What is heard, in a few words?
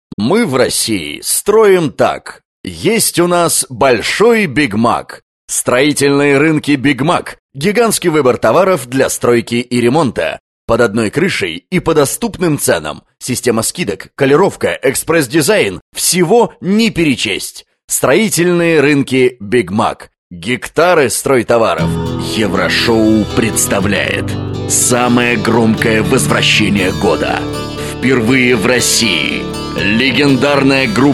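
Russian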